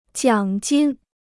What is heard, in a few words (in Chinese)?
"奖金", Chinese